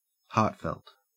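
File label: "heartfelt", Australian English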